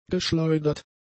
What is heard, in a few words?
"geschleudert", German; adjectives